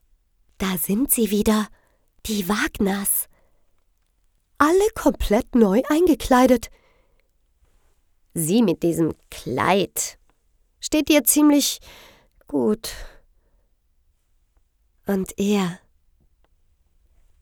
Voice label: Werbespot

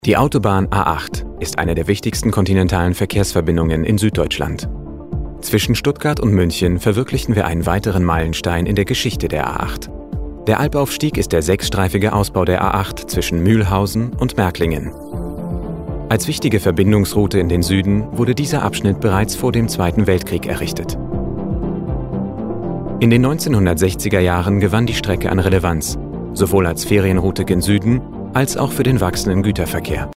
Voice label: DE; Albaufstieg

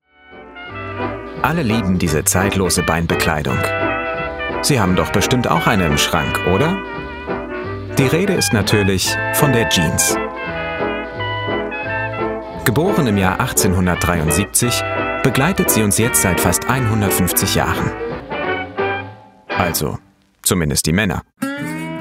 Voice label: DE Feature

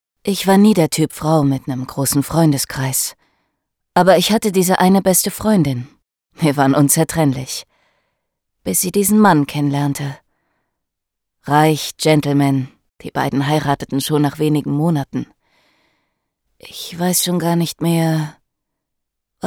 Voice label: Monolog

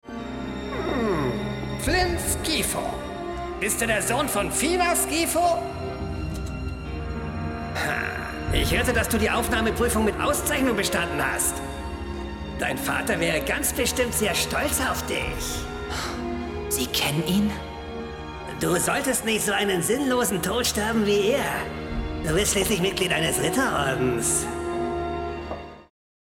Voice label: synchron; talesofvesperia

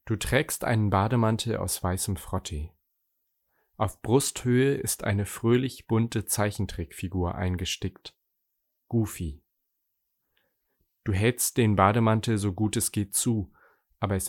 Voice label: Hoerbuch

